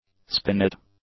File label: "spinet", english